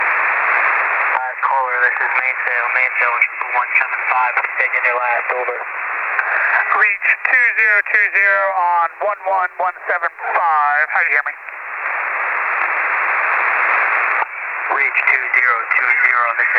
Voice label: HFGCS 11175